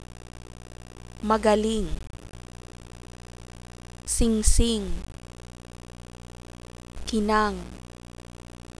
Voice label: ng final, Tagalog, Consonants; Phonology

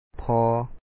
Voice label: "phO#O", Lao; enough; ພໍ

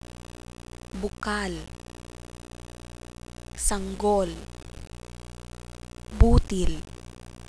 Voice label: l final, Tagalog, Consonants; Phonology

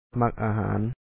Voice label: "ma#k ?aaha4an", Lao; like food

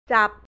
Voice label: "hold", thai; จับ

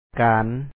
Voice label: "ການ", Lao; kaan

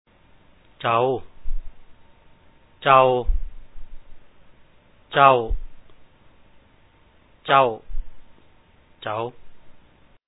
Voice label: chao, ເຈາ / caw ເຈ່າ ເຈ້າ ເຈ໊າ ເຈ໋າ, Lao; Reading Exercise

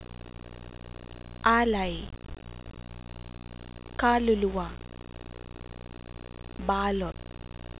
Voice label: l medial, tagalog

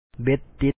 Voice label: ເບັດຕິດ be2t tit stuck hook, Lao

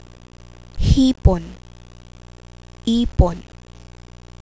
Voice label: con h', tagalog consonants

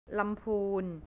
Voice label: "lampoon", thai; ลำพูน; north; แผนที่ประเทศไทย

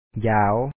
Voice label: "n)aa4w", Lao; ຢາວ

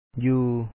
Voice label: "ຢູ່", Lao; ju#u; to stay; "you"

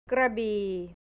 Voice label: "krabii", thai south; กระบี่; แผนที่ประเทศไทย

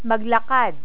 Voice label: "maglakad", Tagalog

